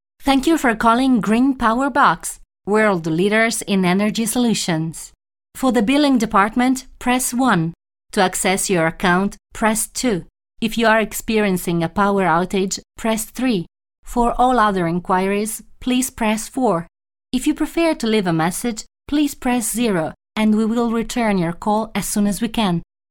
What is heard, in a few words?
English secondary